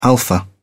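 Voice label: "alpha", British English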